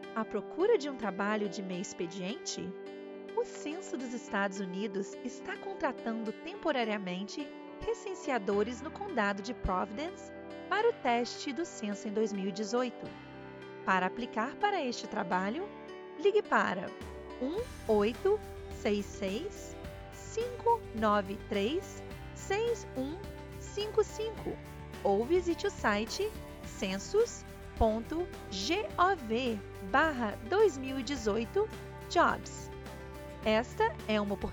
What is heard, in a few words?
recruiting portuguese; providence; 2018 census test